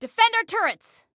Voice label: Defend Turrets, Female; Female 02

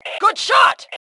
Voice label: Compliment Great Shot, Female; T2 Female 04

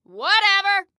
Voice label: Team Anytime, Female

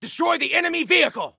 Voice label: Attack Vehicle, Soldier Male; Soldier Male 01

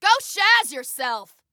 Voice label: Command Declined, Female

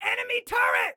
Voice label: Warn Context A Turret, Excited Guy

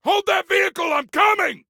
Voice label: Need Hold Vehicle, Soldier Male; Soldier Male 03

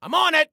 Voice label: On It, Soldier Male

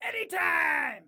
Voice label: Anytime, Excited Guy

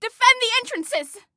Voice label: Defend Entrances, Female; Female 02

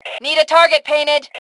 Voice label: Target Need, Female; T2 Female 03

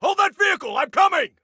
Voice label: Need Hold Vehicle, Soldier Male

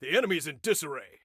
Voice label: Enemy Disarray, Soldier Male

